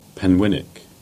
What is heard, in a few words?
Cornish; Penwinnick